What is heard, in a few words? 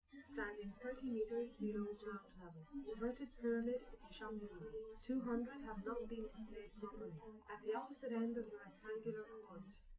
female liverec; SiSEC11; underdetermined